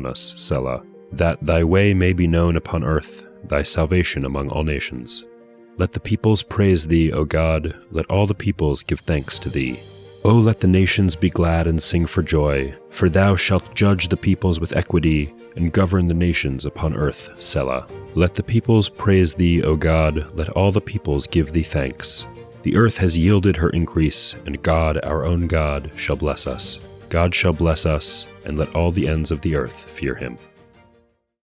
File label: poetry and prayer psalm english